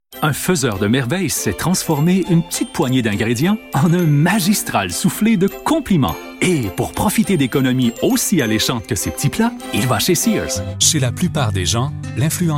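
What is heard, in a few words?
male, Canadian French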